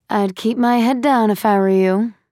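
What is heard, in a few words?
taunt, English; Quinn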